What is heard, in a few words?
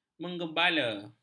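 "menggembala", Malay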